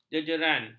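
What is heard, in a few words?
"jejeran", Malay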